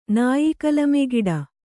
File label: "ನಾಯಿಕಲಮೆಗಿಡ", kannada